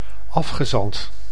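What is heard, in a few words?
"afgezand", Dutch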